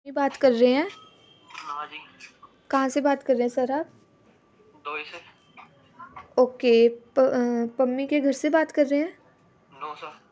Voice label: call recording